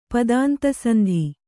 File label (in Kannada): "ಪದಾಂತಸಂಧಿ", Kannada